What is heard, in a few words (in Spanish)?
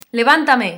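"levántame", Spanish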